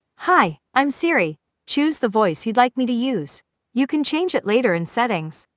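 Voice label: US English; en-US; iOS Sounds; Buddy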